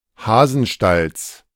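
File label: "Hasenstalls", German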